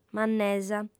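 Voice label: "mannesa", female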